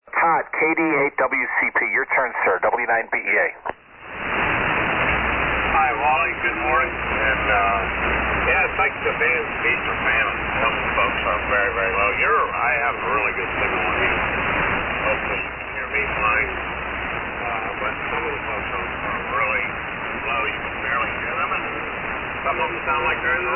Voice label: Tempo-One Shure 526 Mic & TL-922 Amp; Lima, OH

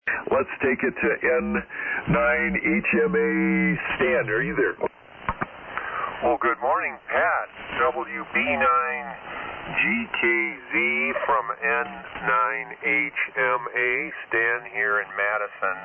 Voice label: Kenwood TS-440 MC-60 Mic; Madison, WI.

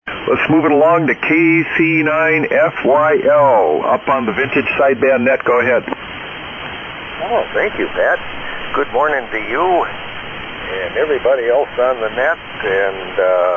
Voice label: Collins S-Line & 10-D Mic; Fort Atkinson, Wi.

